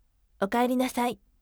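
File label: "okaerinasai", firm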